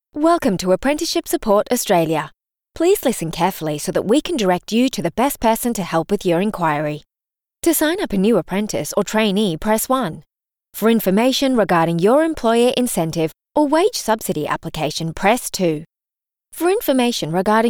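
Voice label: Phone interactive voice response, en-US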